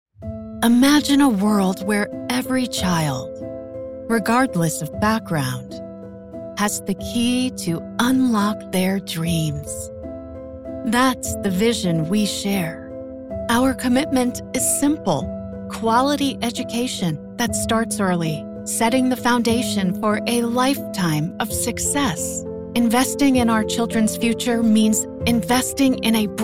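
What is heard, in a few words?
Mission Driven Political Ad, female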